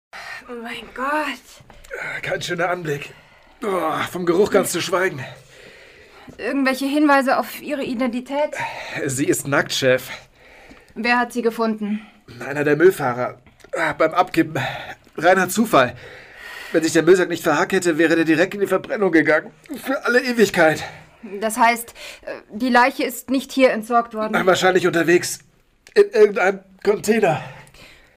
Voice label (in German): Hoerspiel; Muellhalde